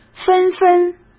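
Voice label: "纷纷", Mandarin Chinese; chinese flashcards; hsk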